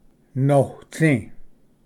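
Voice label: "notsi", Cherokee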